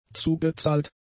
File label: "zugezahlt", German; adjectives